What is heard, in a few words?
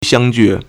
"xiang1ju4", Chinese